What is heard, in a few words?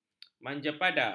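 "manjapada", Malay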